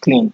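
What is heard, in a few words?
"clean", Nigerian Pidgin, male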